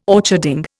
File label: "orcharding", Indian